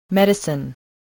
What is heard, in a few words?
"medicine", spelling voice